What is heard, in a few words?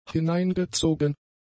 "hineingezogen", German; adjectives